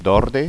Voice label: "dorde", Occitan

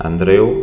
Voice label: "andrea", Occitan

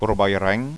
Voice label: "corbaira", Occitan

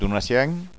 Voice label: "donacian", Occitan

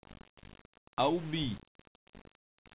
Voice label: "aubin", Occitan

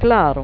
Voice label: "clara", Occitan

